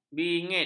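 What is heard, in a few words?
"bingit", Malay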